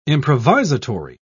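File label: "improvisatory", British English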